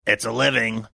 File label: gruff; it's a living